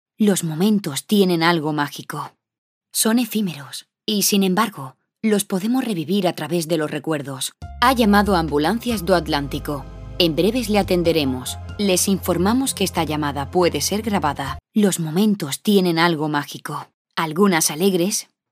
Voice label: locutora spanish voiceover

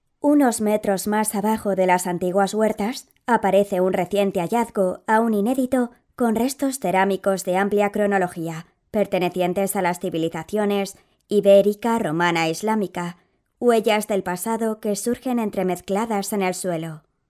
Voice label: locutora spanish voiceover

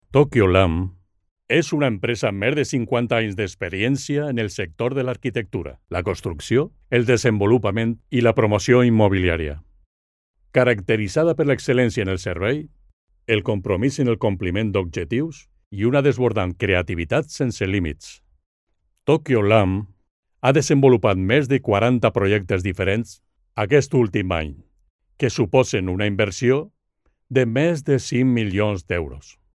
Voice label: locutor valenciano valencian voiceover; locutor actor de doblaje de Valencia

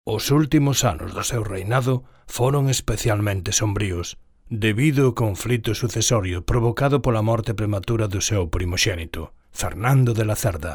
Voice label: locutor gallego galician voiceover

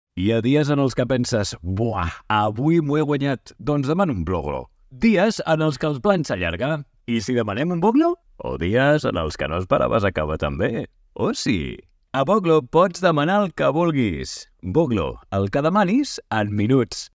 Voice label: locutor catalan voiceover

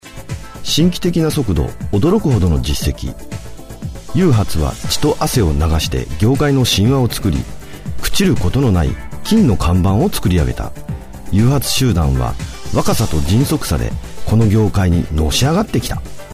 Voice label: locutor japones japanese voiceover

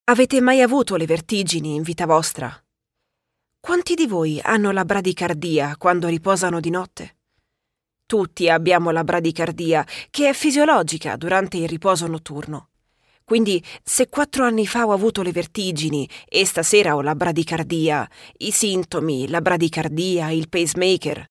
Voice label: locutora italiana italian voiceover

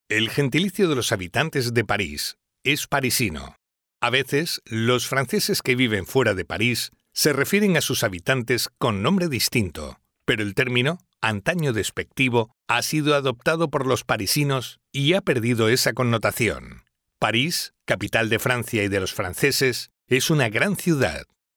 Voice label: locutor spanish voiceover

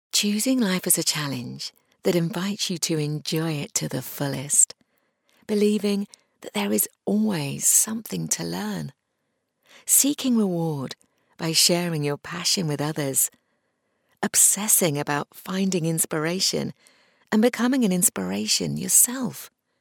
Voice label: english british voiceover locutora inglesa

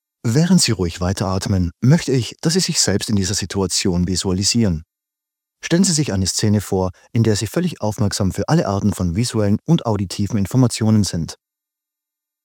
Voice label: locutor aleman austria german austrian voiceover; locutor alemán de Austria

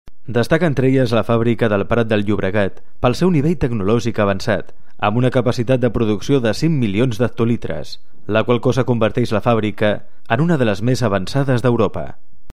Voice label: locutor catala catalonian voiceover

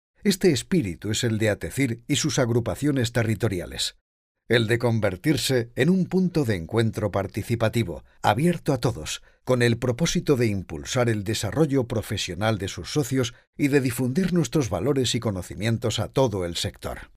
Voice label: locutor spanish voiceover

